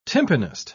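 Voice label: "tympanist", English, British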